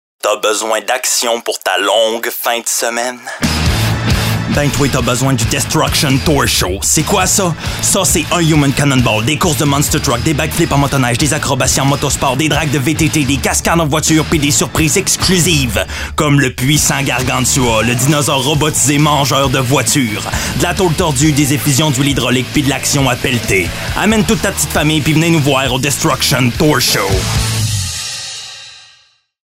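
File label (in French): voix d'annonceur viril forte; Destruction tour show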